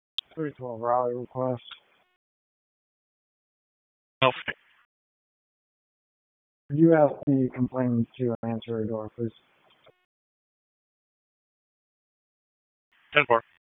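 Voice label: Police Northeast District Disp, radio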